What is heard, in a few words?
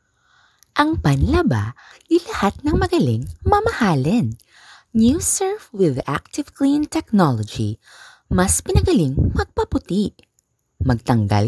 Surf Commercial Voice Over